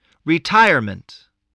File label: "retirement", English